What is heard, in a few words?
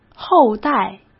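"后代", Mandarin Chinese; chinese-flashcards; hsk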